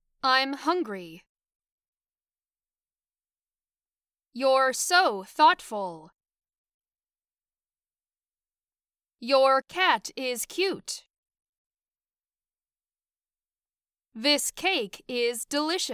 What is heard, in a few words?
Sentences Semi Natural